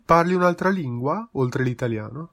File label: do you speak a language other than, italian